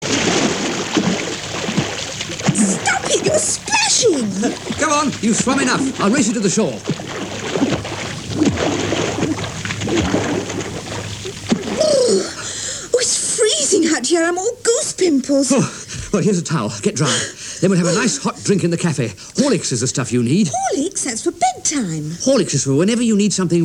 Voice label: Radio Commercial; Radio London; Horlicks